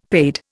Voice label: "paid", Indian; Dictionary